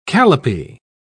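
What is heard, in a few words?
"calipee", British English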